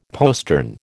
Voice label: "postern", American